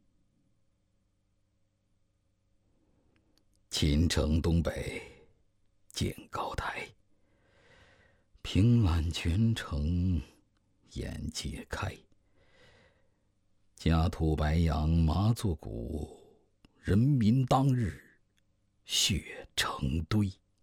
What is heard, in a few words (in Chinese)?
男 诗歌; 诗词类男声样音; 胡步川诗歌